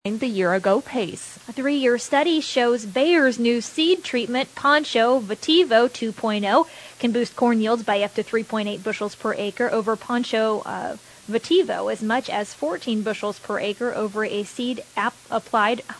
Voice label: air check; Crop Science